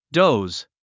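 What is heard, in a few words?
"doze", English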